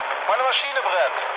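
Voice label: German on fire mood low, speech; War Thunder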